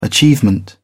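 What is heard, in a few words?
"achievement", British English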